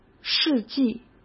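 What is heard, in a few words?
"事迹", Mandarin Chinese; hsk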